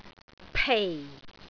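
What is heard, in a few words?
"pei4", Cantonese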